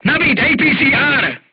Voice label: voice message load APCR, crew tanks czech

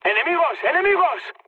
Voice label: enemy detected, mood high, speech; War Thunder

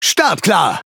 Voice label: Speech Pilots Fighter Ready, DE; World Of Warships

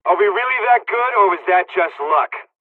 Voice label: dialog, dialogs serbian; us midway; War Thunder

